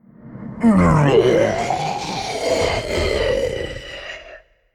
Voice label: Androgynous Faceless Death

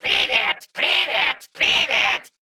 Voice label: Male Mech Play

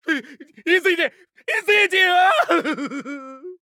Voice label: Male Human Play; Hearthstone; датамайн обновления 5.0

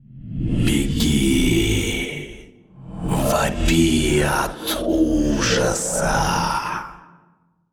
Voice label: Male Old God In Play; Hearthstone; датамайн обновления 5.0

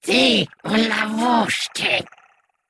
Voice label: Male Klaxxi Attack

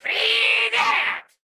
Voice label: Male Mech Attack; Hearthstone; датамайн обновления 5.0

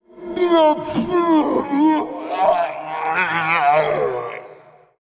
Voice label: Male Faceless Death; Hearthstone; датамайн обновления 5.0

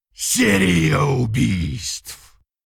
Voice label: Male Worgen Attack

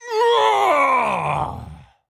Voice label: Male Panderan Death

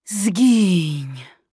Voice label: Female Night Elf Attack

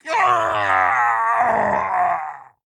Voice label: Male Orc Death

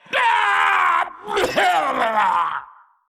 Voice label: Male Qiraji Death; Hearthstone; датамайн обновления 5.0

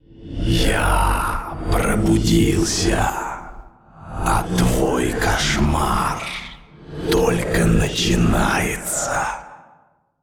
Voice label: Male Old God Play; Hearthstone; датамайн обновления 5.0

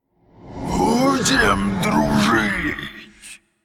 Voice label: Androgynous Faceless Attack; Hearthstone; датамайн обновления 5.0

